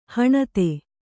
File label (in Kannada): "ಹಣತಿ", Kannada